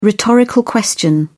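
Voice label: "rhetorical question", British English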